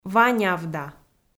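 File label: "ва нявда", Komi-Zyrian, female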